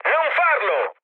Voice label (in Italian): bomb failure, mood med, speech it; War Thunder